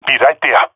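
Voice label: on my way, jungle male epic; omw; vo; agents; Source Model Packs; Counter Strike Model Pack; CS2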